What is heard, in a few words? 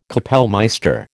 "kapellmeister", American